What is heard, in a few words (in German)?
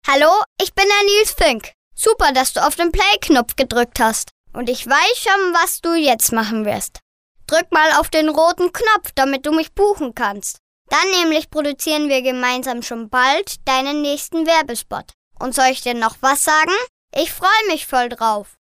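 Kinder Neutral, Kinderstimmen; kleine Profisprecher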